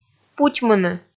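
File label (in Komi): "путьмыны", Komi, female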